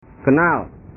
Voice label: "kenal", bahasa

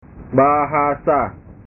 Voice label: "bahasa", bahasa

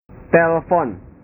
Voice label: "telpon", bahasa

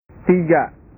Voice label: "tiga", bahasa

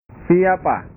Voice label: "siapa", bahasa

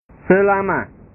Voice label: "selama", bahasa

